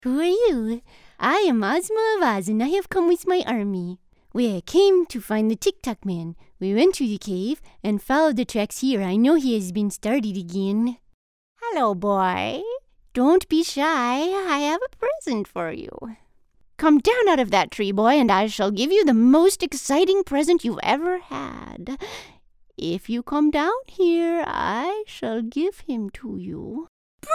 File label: Character